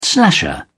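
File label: "slasher", British English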